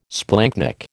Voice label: "splanchnic", American